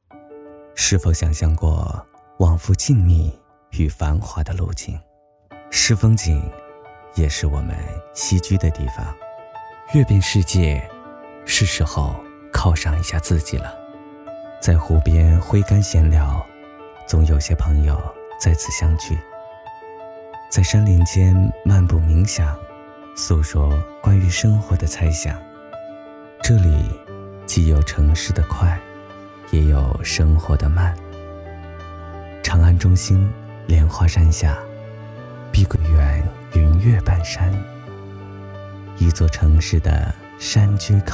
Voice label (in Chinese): 年轻大气广告; 碧桂园